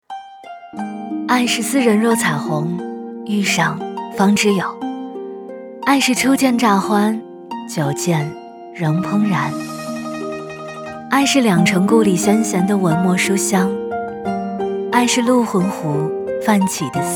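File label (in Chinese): 女国大音-文旅; 嵩县; 文旅配音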